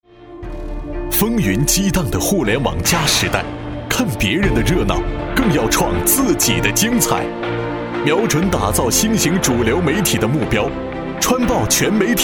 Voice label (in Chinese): 男 广告 大气磅礴; 川报一周年